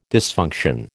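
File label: "dysfunction", American English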